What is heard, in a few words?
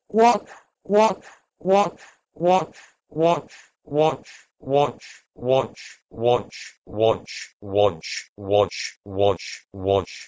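Proto-Indo-European wogh to BCMS voz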